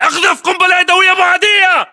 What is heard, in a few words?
inform incoming grenade, english battlechatter